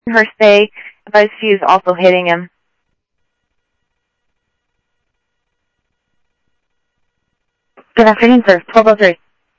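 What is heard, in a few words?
Sheriff West County, scanner audio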